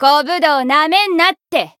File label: win jp